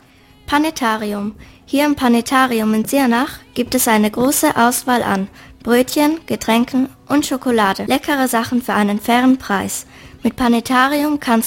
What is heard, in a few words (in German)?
Werbung; Panetarium; Sirnach